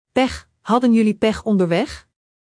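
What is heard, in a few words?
"pech", Dutch; Spelling Gr4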